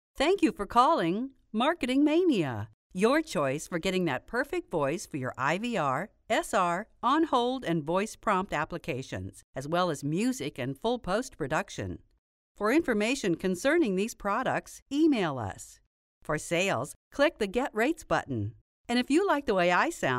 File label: Voice Sample, onhold